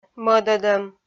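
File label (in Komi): "мӧдӧдӧм", Komi, female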